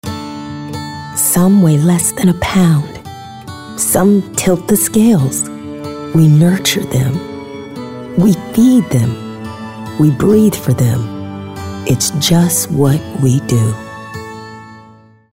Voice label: Caring